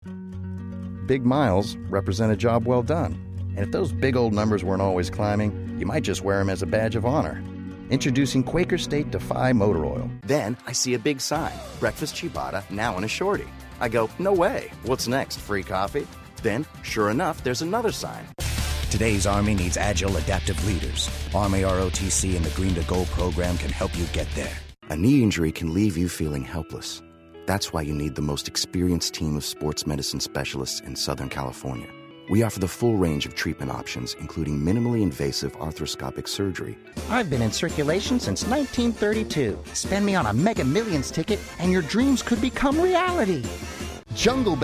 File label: Commercial VO